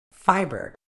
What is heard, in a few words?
"fibre", uk